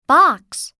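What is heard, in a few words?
"box", English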